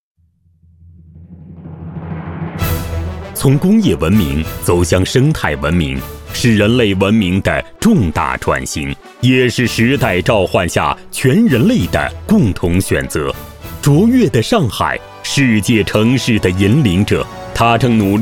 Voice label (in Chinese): 男,科技感专题片 创新驱动与转型发展